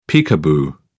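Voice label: "peekaboo", English, British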